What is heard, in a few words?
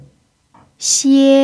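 "xie1", Chinese; pinyin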